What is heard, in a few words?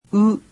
"oo", Japanese; alphabet